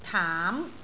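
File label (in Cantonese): "taam2", Cantonese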